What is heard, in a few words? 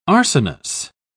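"arsenous", British English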